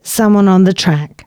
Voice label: announcements, female